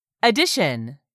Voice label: "EDITION", english; 3000 words